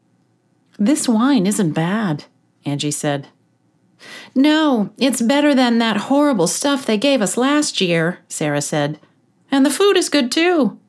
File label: Fiction Thriller Conversation